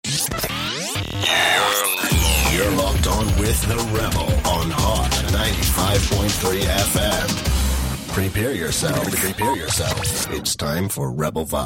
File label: continuity radio; voiceover